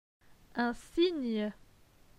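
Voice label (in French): "Un cygne", French; phonetique; les consonnes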